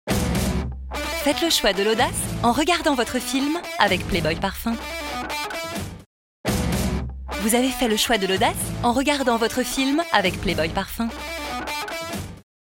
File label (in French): Billboard Parfum; Playboy